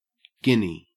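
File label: "guinea" Australian English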